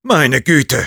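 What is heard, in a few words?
jeers, German; Sniper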